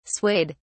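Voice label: "swayed", British English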